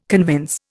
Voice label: "convince", Indian